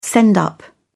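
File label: "send up", English, British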